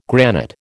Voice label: "granite", American